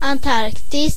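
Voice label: antarctica, Swedish; gcompris-data; geography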